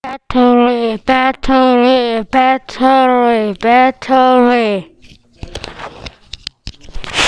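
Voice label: "battery", spoken word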